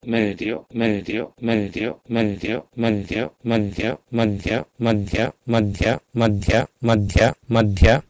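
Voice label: Proto-Indo-European medhio to Sanskrit madhya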